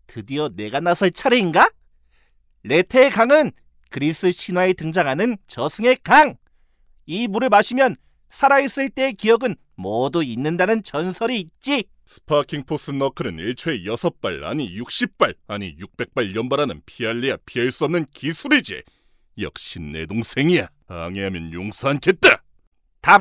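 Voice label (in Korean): 女性 (Female) 광고 나레이션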